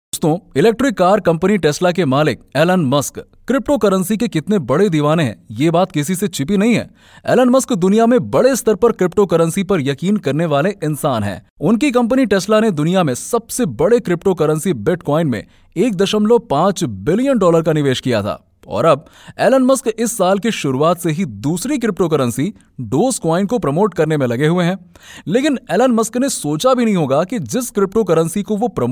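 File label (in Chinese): 印地语男_外语_小语种_样音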